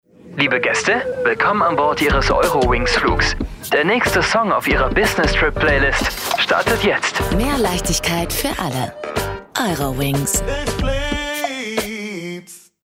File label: Commercial (Werbung), German; Werbung EuroWings Ich flieb's